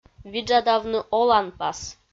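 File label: "виджадавны оланпас", Komi-Zyrian, female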